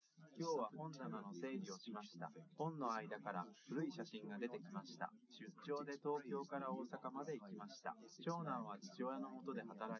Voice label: test male liverec 250ms 5cm src; SiSEC08; SiSEC underdetermined